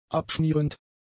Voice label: "abschmierend", German; adjectives